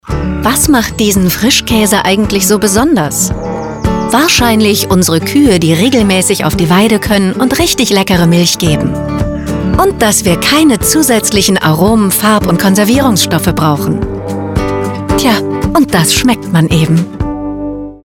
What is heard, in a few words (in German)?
Werbung Frischkaese; LOFT VOICES